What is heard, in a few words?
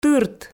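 "тырт", Komi, female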